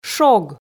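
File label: "шог", Komi, female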